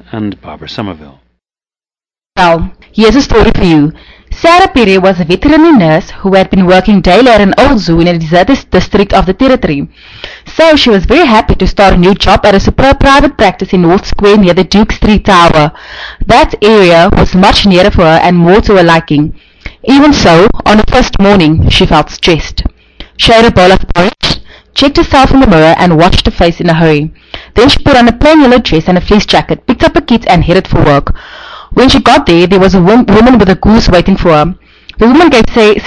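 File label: south africa, dialects of English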